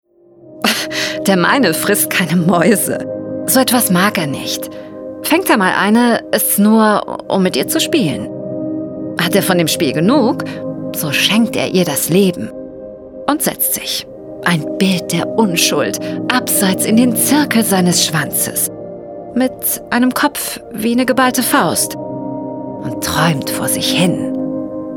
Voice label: Storytelling LYRIK jung sueffisant

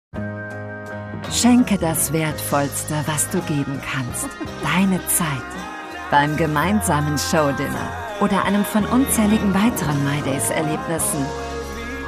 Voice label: TV Spot, natuerlich zugewandt; MydaysFruehjahrsKampagne2024

